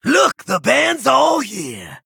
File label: Male Vulpera Emote; TRIGGER Pack The House